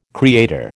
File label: "creator", American